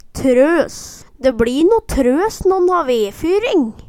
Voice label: trøs, Numedalsmål

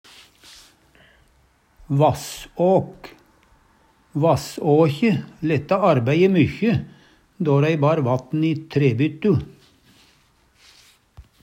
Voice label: vassåk/vass-sæLe, Numedalsmål; Vass-åkje letta arbei'e mykje då rei bar vatten i trebyttu.

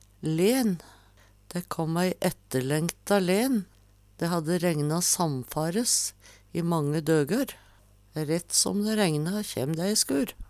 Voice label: len, Numedalsmål; "Rett som dæ regna, kjæm dæ ei skur."

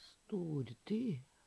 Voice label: "storty", Numedalsmål; storfolk

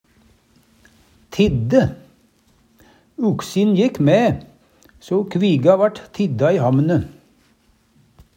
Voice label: tidde, Numedalsmål; Uksin jekk mæ, so kviga vart tidda i hamne.

